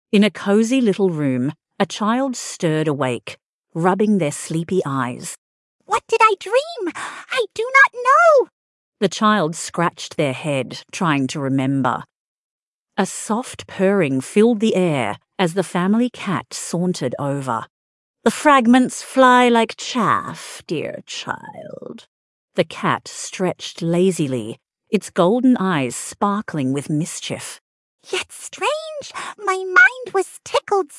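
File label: female Australian story; What Did I Dream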